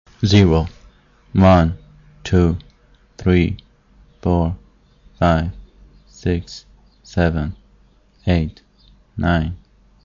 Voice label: count english